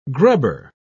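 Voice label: "grubber", British English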